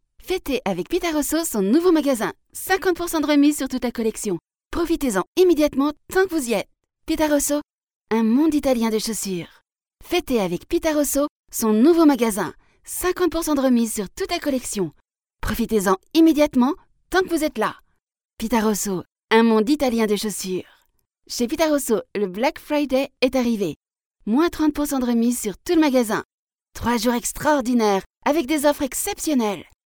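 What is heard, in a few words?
French France, female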